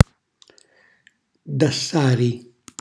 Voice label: "dassari", Calabrese; lasciare

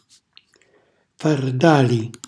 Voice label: "fardali", Calabrese; grembiule

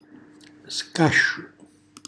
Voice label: "scasciu", Calabrese; cucina calabrese

